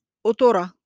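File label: "قطرة", Arabic; trains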